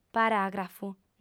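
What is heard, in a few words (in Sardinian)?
"paràgrafu", female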